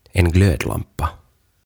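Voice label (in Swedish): "en glödlampa", Swedish; Hehkulamppu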